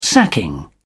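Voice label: "sacking", English, British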